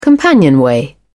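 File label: "companionway", British English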